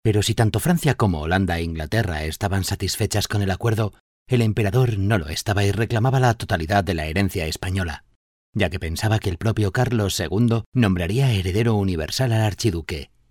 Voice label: locutor spanish voiceover

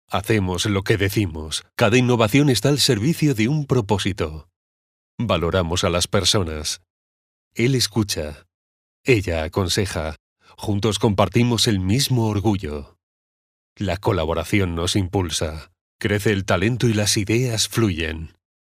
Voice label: locutor spanish voiceover

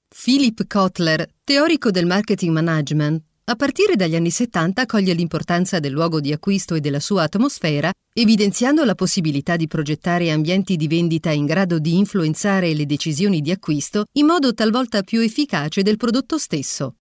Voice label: locutora italiana, Italian voiceover

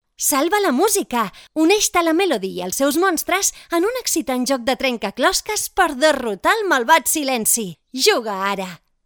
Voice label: locutora catalana catalonian voiceover

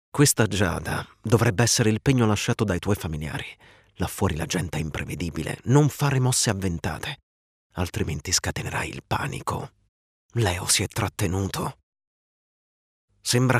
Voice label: locutor italiano, Italian voice artist; Locutor italiano doblaje

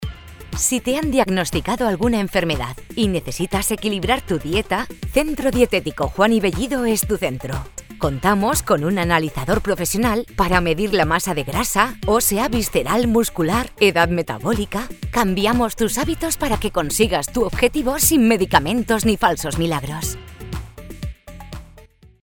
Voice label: locutora spanish voiceover, locutora profesional

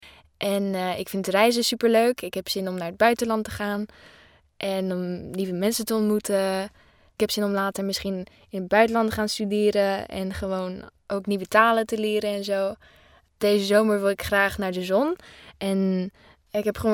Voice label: dutch teen voiceover locutora holandesa joven; locutora juvenil de Holanda

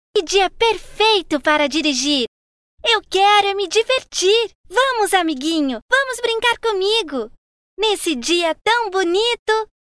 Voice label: brazilian girl voiceover locutora infantil brasil; child brazilian voice

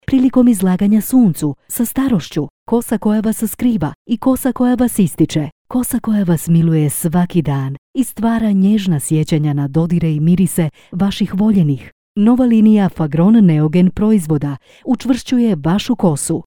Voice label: locutora croata croatian voiceover; Croatian female voice over

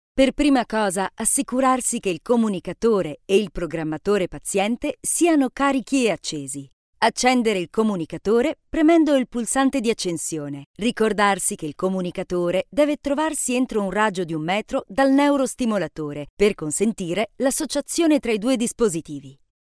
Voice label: locutora italiana italian voiceover

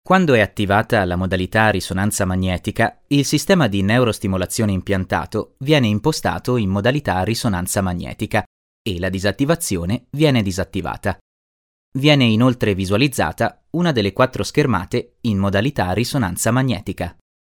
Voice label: locutor italiano italian voiceover

